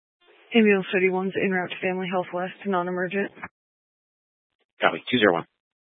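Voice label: Fire Dispatch, scanner audio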